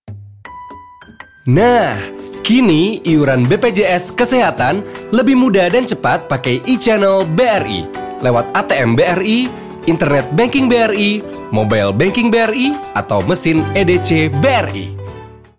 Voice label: INDO CASUAL

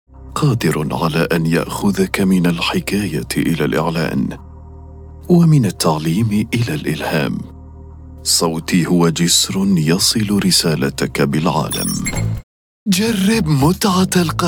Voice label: ARABIC

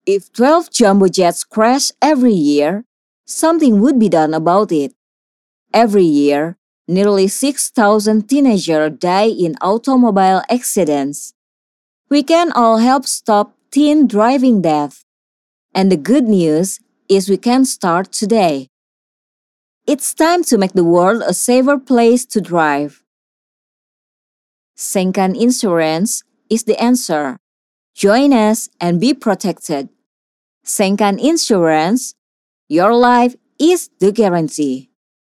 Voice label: formal English

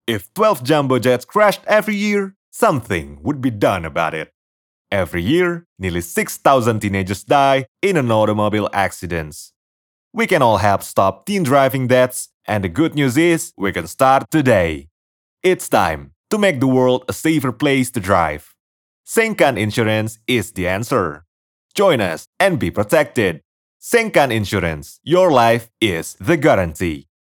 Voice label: Formal English

